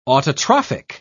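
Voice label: "autotrophic", English, British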